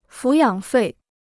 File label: "抚养费", Chinese